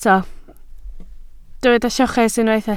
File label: "lloches", Welsh